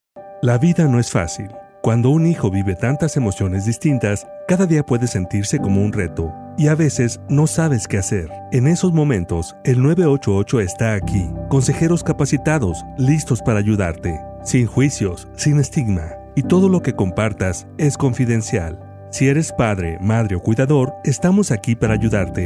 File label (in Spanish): SPANISH Caregivers Radio Spot; 988; 30 Sec